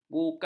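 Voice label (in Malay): "bukau", Malay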